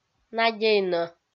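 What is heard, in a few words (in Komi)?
"надейнӧ", Komi, female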